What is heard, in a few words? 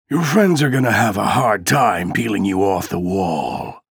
chatter positive; ranger; frag